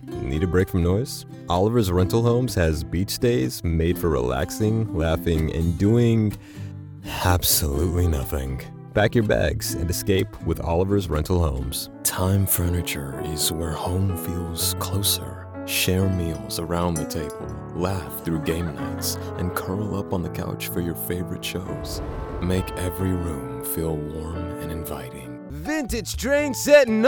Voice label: commercial